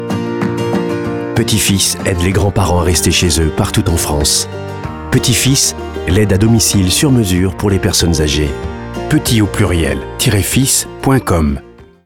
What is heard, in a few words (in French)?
Voix Off Posee Rassurante; RADIO; PETITSFILS